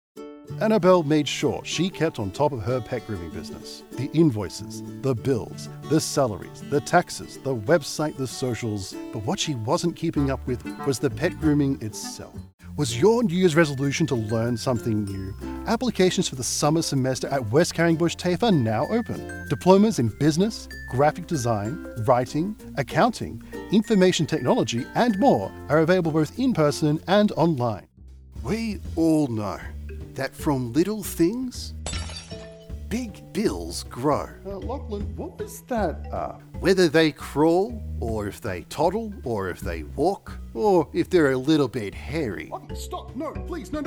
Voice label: Commercial Demo